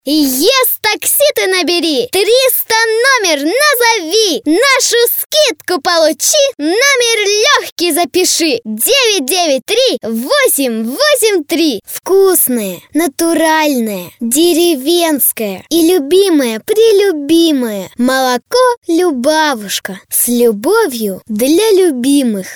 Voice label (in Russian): детский голос, rus; child